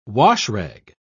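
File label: "washrag", British English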